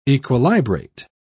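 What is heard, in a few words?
"equilibrate", British English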